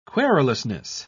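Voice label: "querulousness", British English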